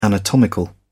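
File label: "anatomical", English, British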